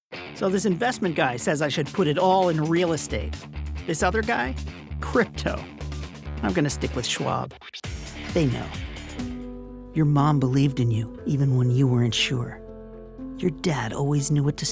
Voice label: Commercial, Professional Male Voice Over Talent